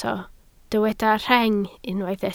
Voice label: "rheng", Welsh